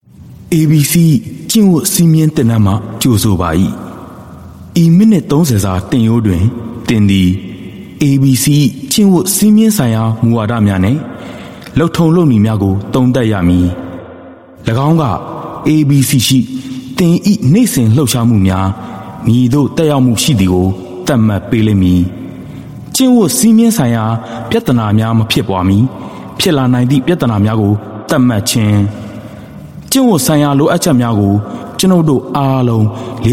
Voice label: male Burmese E-Learning

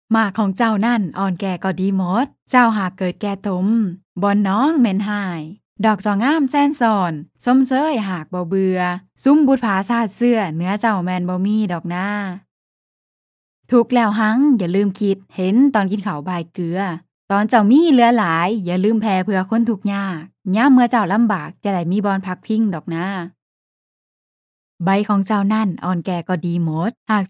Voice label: Lao, Lao Female

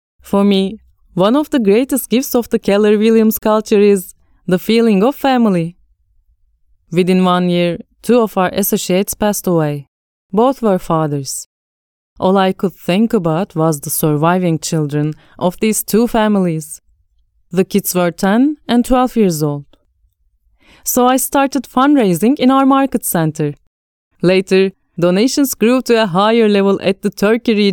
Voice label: Turkish Accented English, Female; KellerWilliams

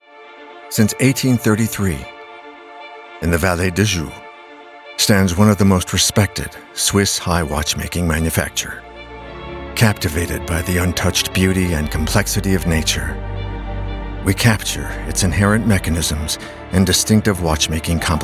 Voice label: US English; Jaeger Le Coultre Manifesto

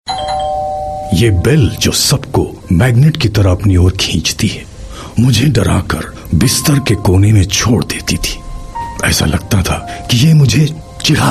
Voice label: Hindi Male Commercial; India

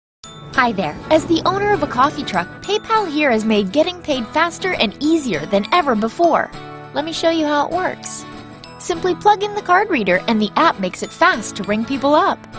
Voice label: US English; PayPal

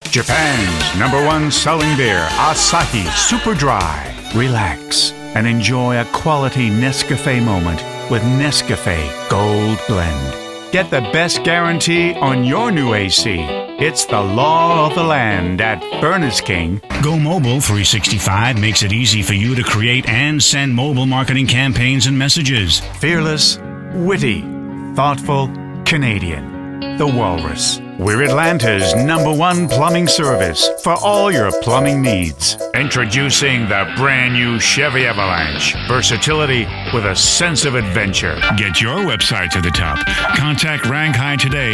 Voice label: US English Commercial Voice

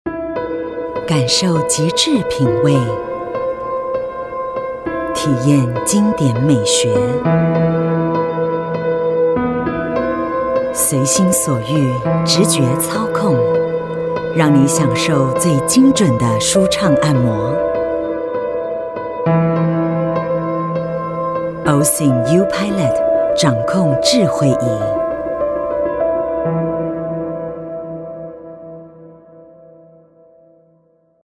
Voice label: Mandarin, China, Female; OSIM

